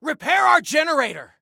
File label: Repair Generator, Soldier Male; Soldier Male 01